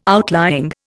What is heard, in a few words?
"outlying", Indian